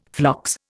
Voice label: "phlox", Indian; Dictionary